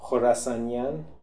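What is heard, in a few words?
"Khorassanian", pronunciations; Fourth Way; Beelzebub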